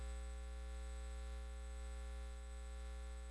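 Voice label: "ding", spoken word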